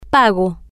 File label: "pago", Spanish